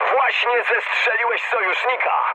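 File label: Polish player killed ally mood medium; speech; War Thunder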